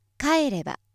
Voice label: female